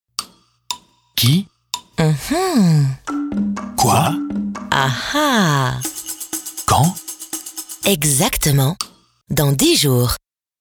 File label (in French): radiopub spot